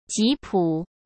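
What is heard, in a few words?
"吉普", Mandarin Chinese; hsk; chinese-flashcards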